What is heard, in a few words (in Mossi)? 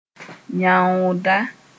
"yãoondã", moore